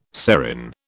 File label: "serin", British